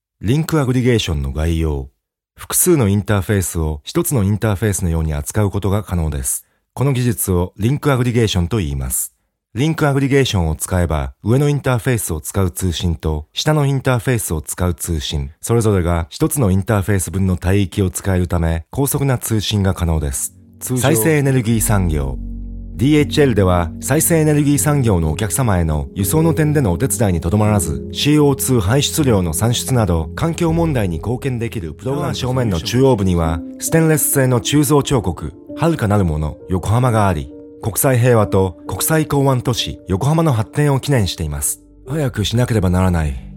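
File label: Japanese, Narrative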